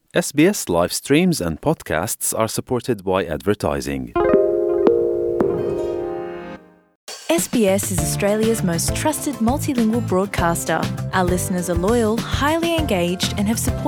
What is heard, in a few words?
gujarati news bulletin; SBS Gujarati Australian update: 22 April 2025; Listen to the latest Australian news from SBS Gujarati.